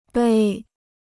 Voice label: "碑", Chinese

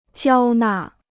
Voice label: "交纳", Chinese

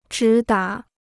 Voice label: "直达", Chinese

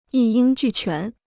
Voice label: "一应俱全", Chinese

